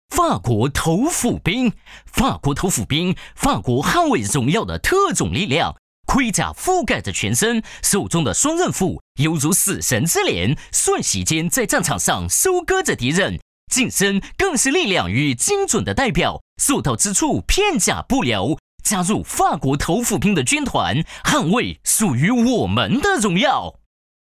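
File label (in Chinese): 法式 投斧兵, 四川话 男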